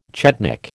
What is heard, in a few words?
"chetnik", American